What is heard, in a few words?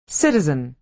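"citizen", American English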